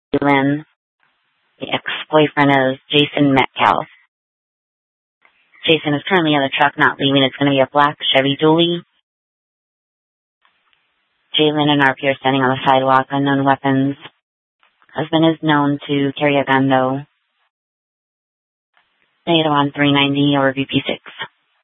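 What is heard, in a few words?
Scottsdale PD North Dispatch; Nth Dispatch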